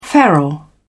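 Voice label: "feral", English, British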